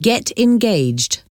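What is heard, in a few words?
"get engaged", English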